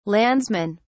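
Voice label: "landsmen", British English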